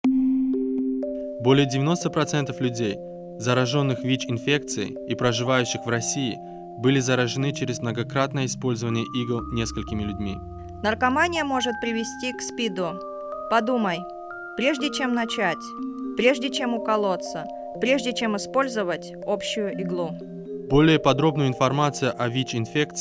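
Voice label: spot russian music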